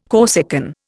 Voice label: "Corsican", Indian

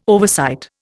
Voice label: "oversight", Indian

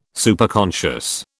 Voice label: "Superconscious", British

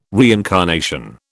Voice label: "reincarnation", British

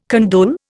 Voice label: "condole", Indian

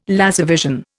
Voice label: "laservision", Indian

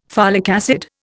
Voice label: "folic acid", Indian

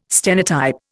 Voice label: "stenotype", Indian

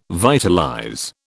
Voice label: "vitalize", British

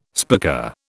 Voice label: "spica", British

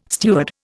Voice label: "steward", Indian

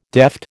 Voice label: "deft", American

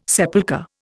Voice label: "sepulchre", Indian

